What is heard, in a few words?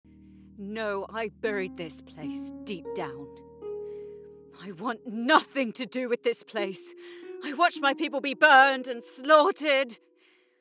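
PODCAST UK RP Adult Female Monologue; Rosabel; Orla; accents characters age voice samples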